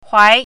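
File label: "huai2", Mandarin Chinese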